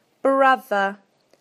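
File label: "brother", British English; PictureDictionary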